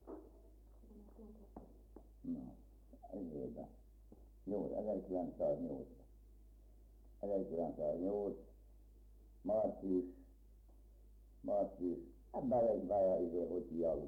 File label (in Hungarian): nyelvjaras gyujtesek